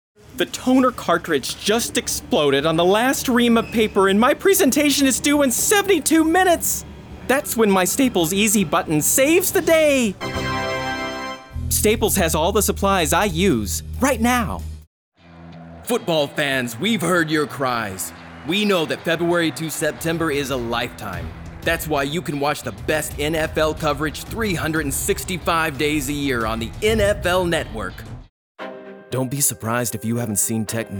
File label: VO PRODUCT AND RETAIL; Demo Reel